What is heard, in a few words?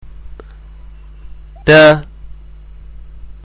Taa, arabic letter sounds